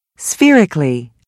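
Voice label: "spherical", spoken word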